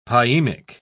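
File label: "pyemic", British English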